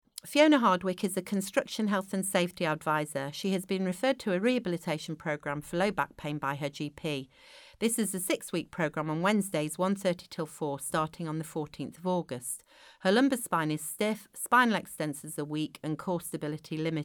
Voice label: narration; AHP advisory fitness for work report; professional development